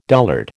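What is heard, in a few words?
"dullard", American English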